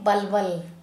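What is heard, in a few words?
"sweat", Kera Mundari, female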